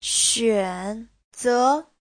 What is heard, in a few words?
"xuan3ze2", Chinese; 选择; (động từ) lựa chọn; Giáo trình chuẩn HSK3